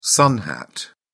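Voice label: "sun hat", British English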